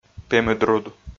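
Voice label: "пемыдруд", Komi, male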